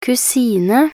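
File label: "kusine", Norwegian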